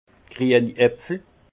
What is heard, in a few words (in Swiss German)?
"grieni apfel", alsace; vin; pommes vertes